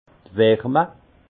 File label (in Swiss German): "warme", alsace; vin; température